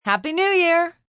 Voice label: New Year, female; Holiday; Occasion, event; VoiceBox

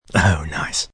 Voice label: Oh Nice, male; Talking

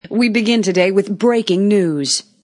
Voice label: News Anchor Female Breaking News Intro; Talking Sound Effects

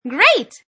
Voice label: Women

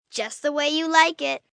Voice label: Just The Way U Like, Sales Pitch, 1 girl, 10 yrs; Talking Sound Effects

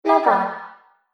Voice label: Robot Voice Log On; Robot Sound Effects; Sci-Fi Sound Effect; Andrew Fleming; Twistedtracks

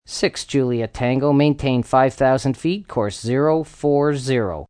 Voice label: Tower Maintain, Air traffic controller, male; Pa Announcement; VoiceBox

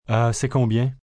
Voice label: How Much, Question, foreign, male: French; Talking Sound Effects; VoiceBox; Twistedtracks

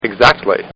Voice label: Man Says Exactly; Talking; Voice

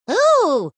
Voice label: "Who" Female, Exclamation

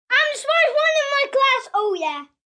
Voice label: "Smartest in Class", 1 boy, 8 yrs; Talking; VoiceBox

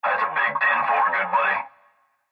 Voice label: Cb Trucker Radio; Radio Voice; Blastwave

